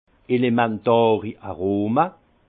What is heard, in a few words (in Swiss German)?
"elementariaroma", Alsace; vin; arômes primaires